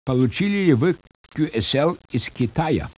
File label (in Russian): SPEECH, RUSSIAN